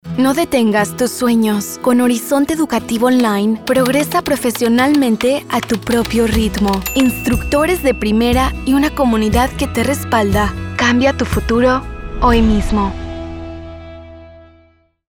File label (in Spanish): SPA LATAM Educacion Optimista Alegre